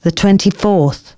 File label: announcements female